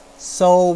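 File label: "sou1", Chinese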